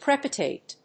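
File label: "crepitate", English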